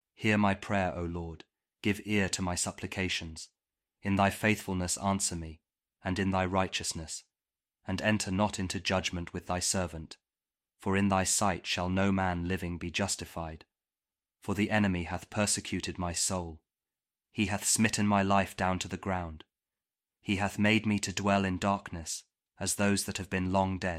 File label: psalm kjv king james audio bible